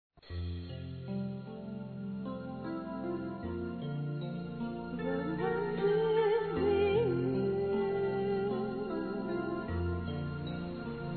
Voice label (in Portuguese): Neto Criança Masculino; Telemensagens 2017; Aniversários; Aniversário Infantil